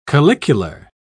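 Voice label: "calicular", British English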